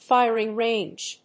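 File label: "Firing range", English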